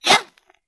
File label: "ya" 8 bit fighting gal; ooh ahh